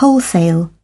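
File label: "wholesale", British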